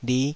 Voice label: "lih5", Taiwanese syllable